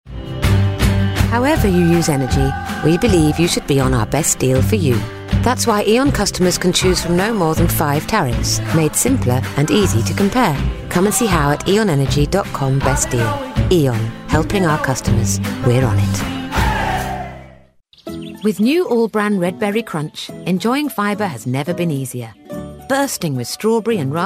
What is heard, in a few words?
Commercial